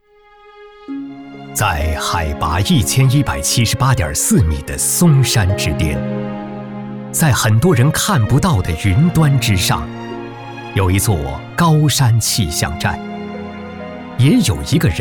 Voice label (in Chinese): 男 讲述类